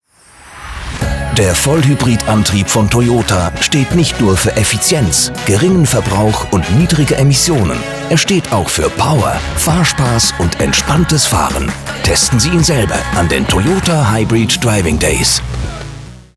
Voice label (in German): Radiospot Hoch-dt. Sprecher; Toyota Hybrid Days Radio 2019